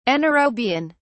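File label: "anaerobian", British English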